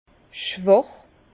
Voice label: "schwach", Bas Rhin; vin; faible

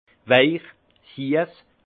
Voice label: "weich", alsace; vin; doux

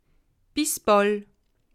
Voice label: "bis bal", alsace

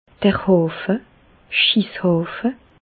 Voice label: "hafe", Bas Rhin; medecine; pot de chambre

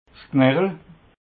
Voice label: "knechel", alsace

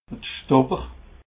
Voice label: "schtopper", alsace

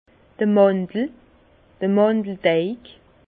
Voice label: "mandel", Bas Rhin; vin; pâte d’amande

